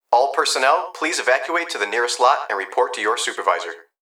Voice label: Emergency Human Young Male Voice Plant Security Dispatch All Personnel Please Evacuate; emergency effects